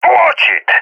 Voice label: taking fire friendly, balkan; balkan epic